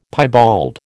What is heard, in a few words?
"piebald", American